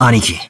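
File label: "aneki", Japanese; Battler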